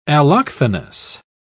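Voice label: "allochthonous", British English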